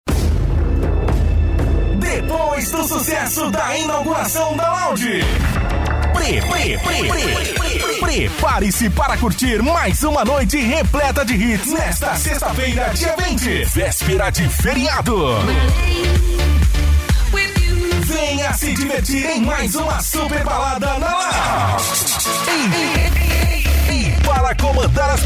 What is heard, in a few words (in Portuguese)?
locutor